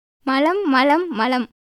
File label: Tamil; மலம்; malam; [mələm]; stool; 大便、糞